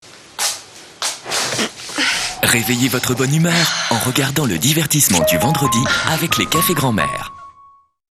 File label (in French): bienveillant chaleureux, comédien voix off parrainage; GRAND MERE chaleureux